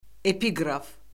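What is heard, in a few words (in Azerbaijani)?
"epiqraf", Azerbaijani; Orfoqrafiya Lüğəti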